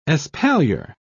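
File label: "espalier", British English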